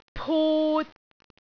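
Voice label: "put3", Cantonese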